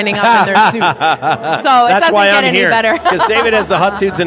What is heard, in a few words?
CLIPPING CORRECTION Podcast; YaJagoff